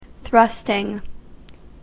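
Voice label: "thrusting", English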